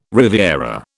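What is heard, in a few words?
"riviera", British English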